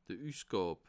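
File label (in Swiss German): "usgab", Bas Rhin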